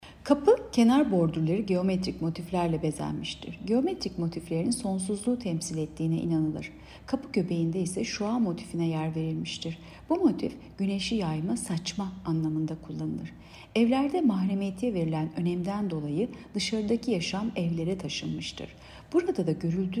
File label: turkce